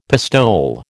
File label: "pistole", American